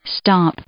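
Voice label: "stomp", English, female; verb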